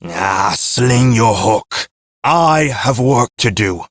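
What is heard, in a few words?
Ambient Male Sweeper, Dialog; Duviri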